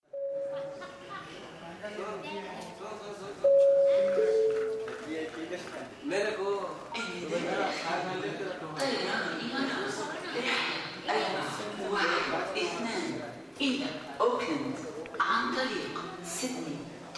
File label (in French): aéroport de Dubaï annonce et voix